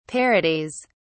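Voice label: "parodies", British English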